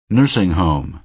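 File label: "nursing home", American English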